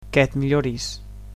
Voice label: "get well soon", Catalan; Que et milloris

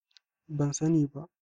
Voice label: i dont know, hausa

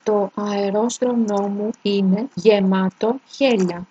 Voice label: hovercraft, Greek; Το αερόστρωμνό μου είναι γεμάτο χέλια; To aeròstromnò mu ìne gemàto hèlia; Greek (Modern)

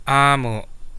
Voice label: no, Central Dusun

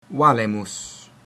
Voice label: we're fine, latin; Valemus

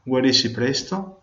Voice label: get well soon, venetian; Guarissi presto

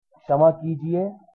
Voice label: excuse me, hindi

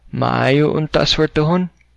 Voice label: good luck, cebuano

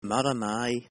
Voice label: good morning, Manx

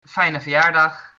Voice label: happy birthday, dutch

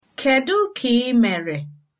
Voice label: how are you, igbo

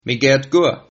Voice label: fine, Sylt Frisian

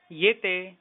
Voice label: goodbye, marathi

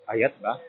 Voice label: fine, bonggi

